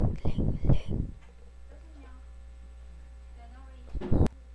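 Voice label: "ring", spoken word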